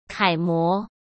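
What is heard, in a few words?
"楷模", Mandarin Chinese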